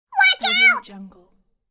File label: cartoon voice watch out